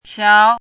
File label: "qiáo", hanzi